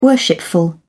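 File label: "worshipful", British English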